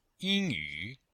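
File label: "ying1yu3", Chinese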